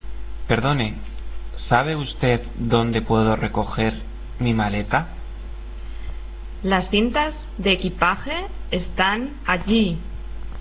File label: dialog